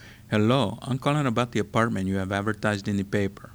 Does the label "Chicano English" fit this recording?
Yes